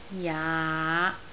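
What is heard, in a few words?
"jaa5", Cantonese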